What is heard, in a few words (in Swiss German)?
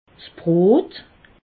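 "brot", Alsatian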